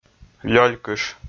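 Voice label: "лёлькыш", Komi, male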